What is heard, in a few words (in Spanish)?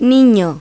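"niño", Spanish; Pipo-Aprende musica con Pipo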